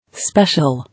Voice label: "Special", English

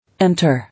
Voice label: "Enter", English

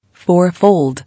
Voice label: "Fourfold", English

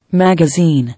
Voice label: "Magazine", English

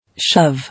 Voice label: "Shove", English

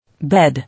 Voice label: "Bed", English

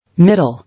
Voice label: "Middle", English

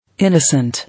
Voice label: "Innocent", English

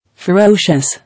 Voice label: "Ferocious", English

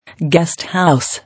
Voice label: "Guest house", English

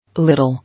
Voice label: "Little", English

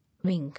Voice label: "Wing", English

